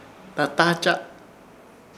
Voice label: "Datahacha", Ishir Chamacoco; madrestra